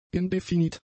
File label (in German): "indefinit", German; adjectives